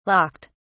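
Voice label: "locked", English, British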